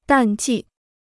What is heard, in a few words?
"淡季", Chinese